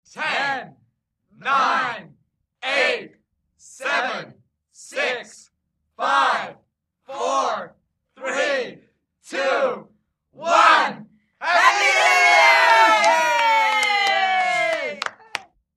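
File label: Happy New Year Small Crowd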